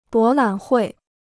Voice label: "博览会", Chinese